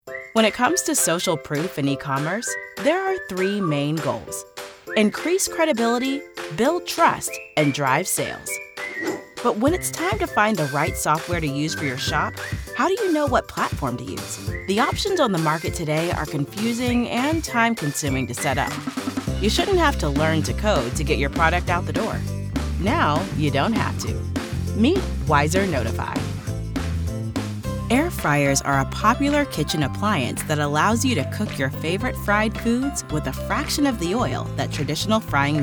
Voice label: Explainer